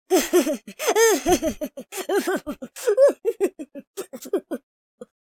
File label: happy, speech, Hippy